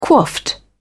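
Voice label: "coiffed", British English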